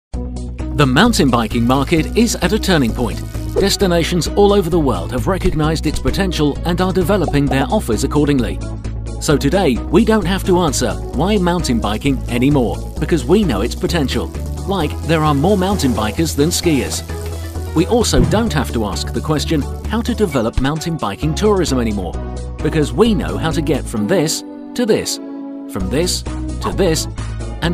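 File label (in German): UK English Fremdtake Freundlich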